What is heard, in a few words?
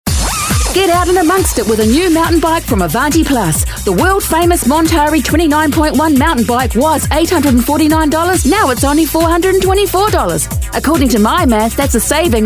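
English New Zealand Radio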